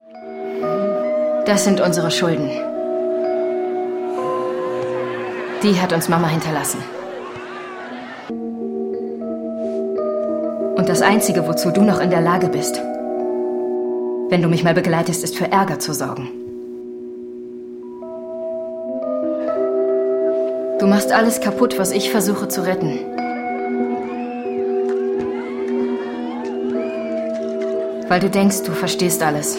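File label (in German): acting, Dynamisch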